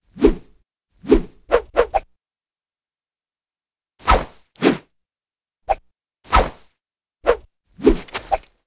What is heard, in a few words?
Lizard voice Enter